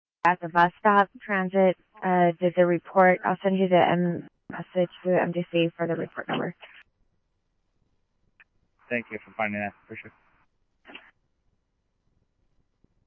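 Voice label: scanner, Phoenix Police Department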